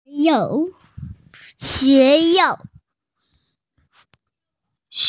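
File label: "college", Mandarin, hearing-impaired; xue2yuan4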